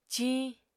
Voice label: "ciiñ", Apatani